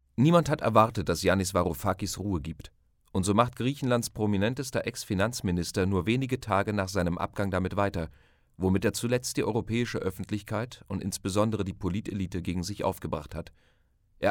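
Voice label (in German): Sprechprobe Sachtext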